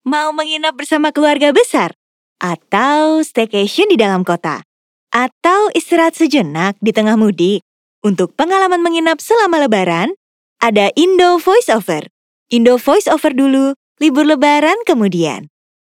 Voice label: INDONESIA CASUAL

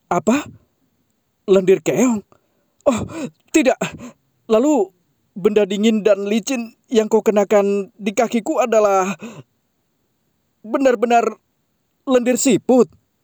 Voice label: INDONESIA KARAKTER

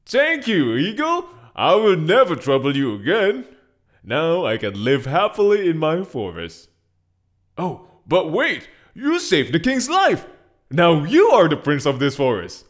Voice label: english character

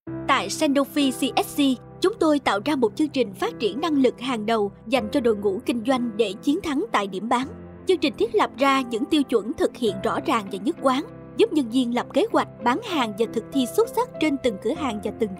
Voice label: Vietnam formal

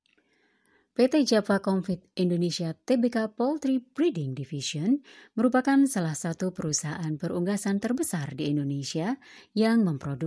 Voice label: bahasa indonesia formal

